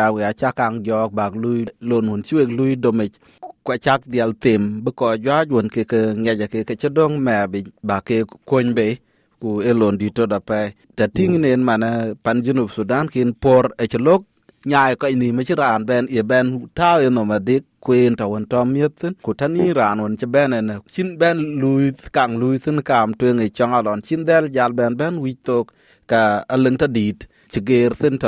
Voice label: dinka, podcast; Pïïr domic baai kɔ̈u aɣer Toowoomba; SBS Dinka